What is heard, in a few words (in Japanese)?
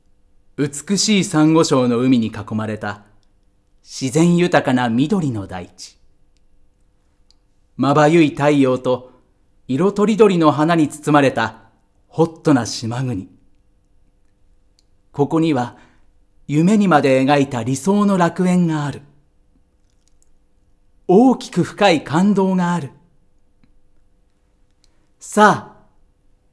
ナレ